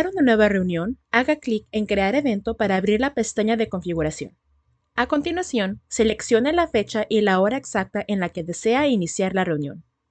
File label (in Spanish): Guion Elearning Calendario, locución